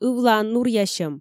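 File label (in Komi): "ывлаын нуръясьӧм", Komi, female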